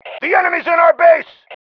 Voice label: enemy in base, MALE